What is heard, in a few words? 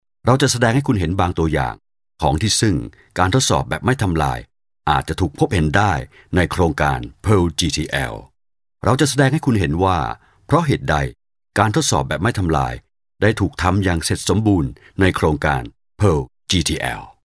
thai male voiceover locutor tailandia; locutor tailandés